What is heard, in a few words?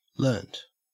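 "learnt", Australian English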